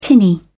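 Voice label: "tinny", British English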